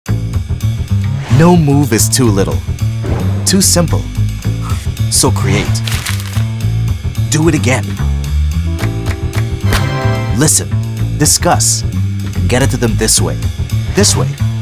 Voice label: English, conversational